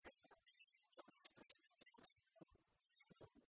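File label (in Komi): "талун тӧвшӧр тӧлысь кызь кӧкъямысӧд лун выльлун", Komi, female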